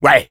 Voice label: yes fr

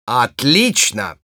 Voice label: positive vocalization, ru

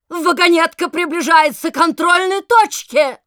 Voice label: Announcer cart defender warning, ru

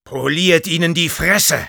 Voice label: battle cry, de; Sniper

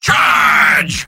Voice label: battle cry; Soldier

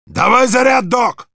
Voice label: activate charge, ru; Heavy

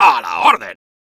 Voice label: positive vocalization es; Soldier

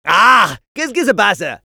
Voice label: jeers fr; Medic

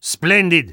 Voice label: Spy positive vocalization

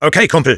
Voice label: Sniper yes, de

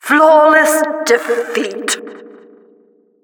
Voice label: Announcer flawless defeat

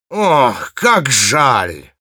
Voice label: negative vocalization, ru

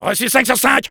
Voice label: positive vocalization, fr; Soldier

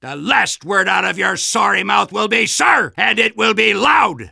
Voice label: Soldier taunts

